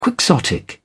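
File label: "quixotic", English, uk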